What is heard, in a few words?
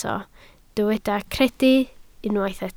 "credu", Welsh